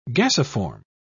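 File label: "gasiform", British English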